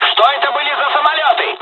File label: dialog, dialogs russian; War Thunder